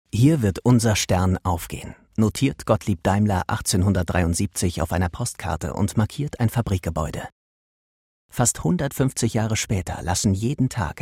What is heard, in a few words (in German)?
imagefilm; mercedes benz